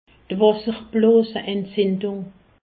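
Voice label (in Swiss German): "wasserbloosentzindung", Alsatian; medecine; cystite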